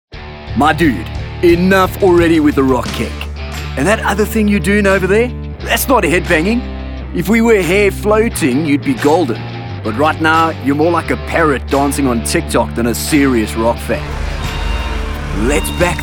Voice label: Explainer Demo

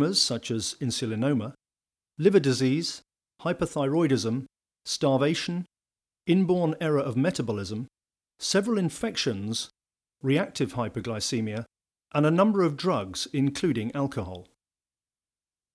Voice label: INSTRUCTIONAL NARRATION

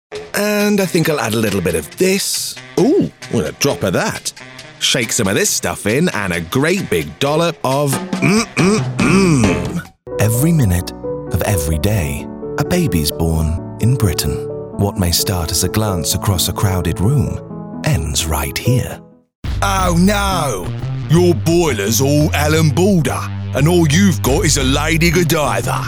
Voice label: Commercial

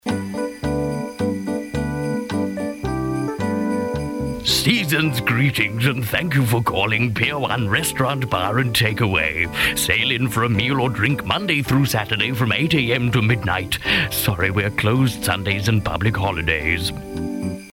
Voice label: restaurant Santa Claus character voice; Pier One

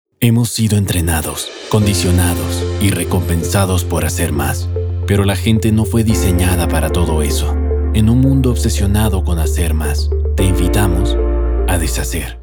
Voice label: Commercial Demo

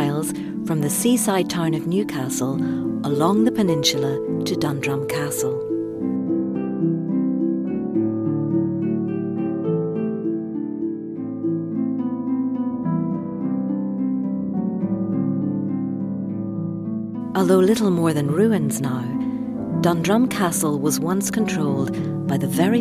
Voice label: Documentary Nature History

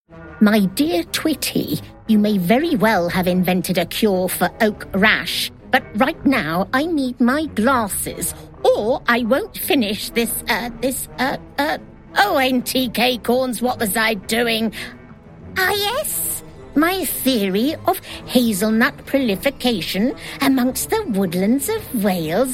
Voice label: Animation

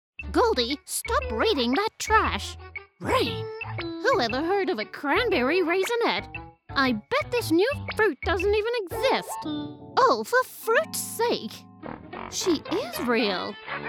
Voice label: English Cartoon Voices

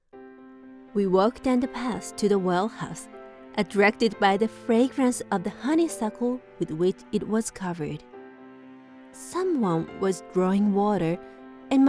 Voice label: English with Japanese accent BGM

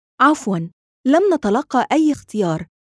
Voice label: IVR

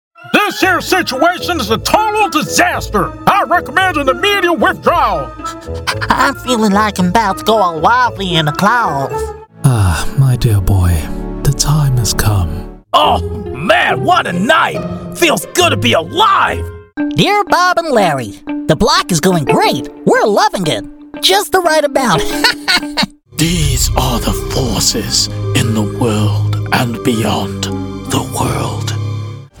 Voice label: CHARACTER

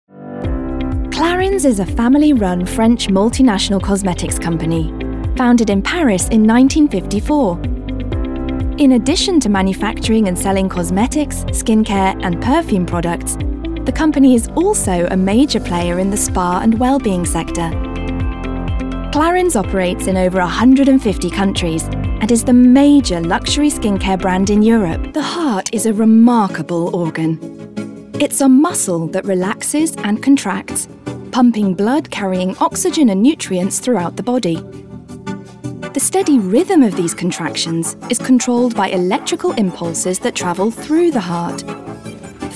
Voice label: Narrative Demo

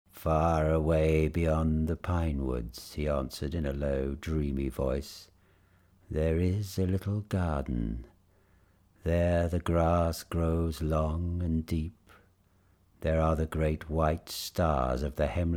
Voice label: Audiobook

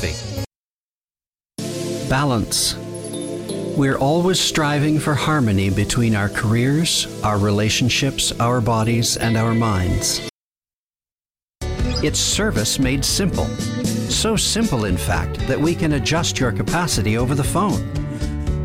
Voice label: Commercial Samples

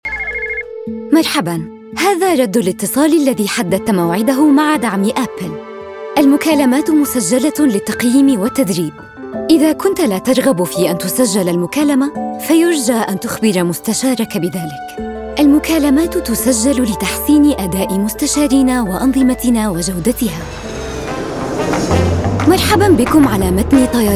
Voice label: IVR

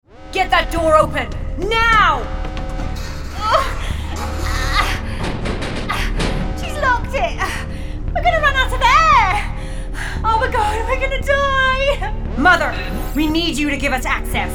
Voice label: Gaming The Evil Robot

